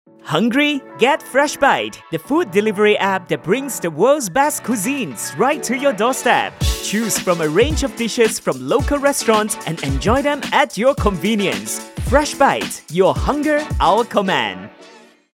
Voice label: English - Happy, Engaging, Upbeat